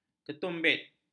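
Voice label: "ketumbit", Malay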